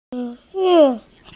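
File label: "qun2zi5", Mandarin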